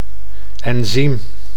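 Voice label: "enzym", Dutch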